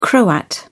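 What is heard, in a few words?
"croat", British English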